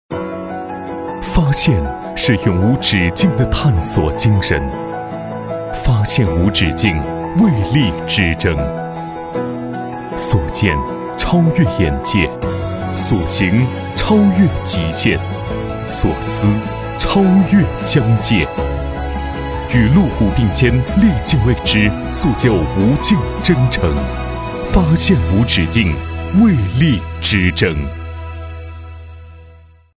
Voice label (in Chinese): 男 品质宣传; 路虎